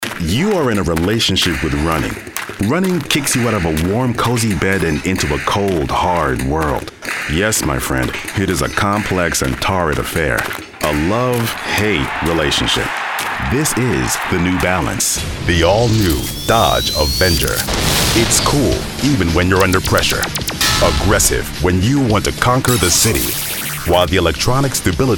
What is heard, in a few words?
Commercial VO